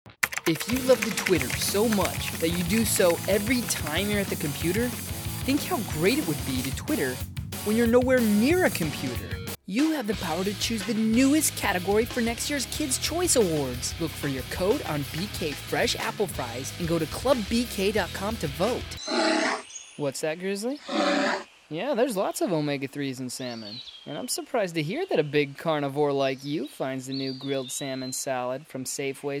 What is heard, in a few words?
VO commercial reel